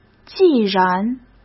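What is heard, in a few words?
"既然", Mandarin Chinese; hsk; chinese-flashcards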